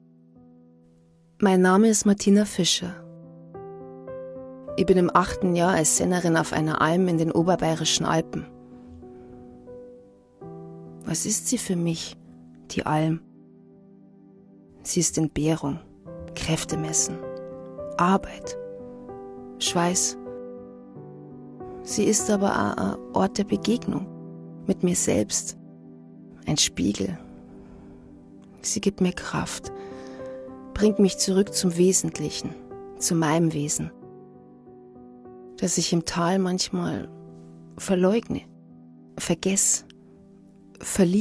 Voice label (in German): synchron bayrisch